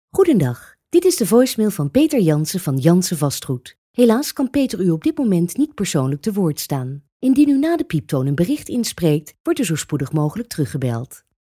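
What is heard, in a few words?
DUT F, Dutch female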